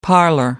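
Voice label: "parlor", British English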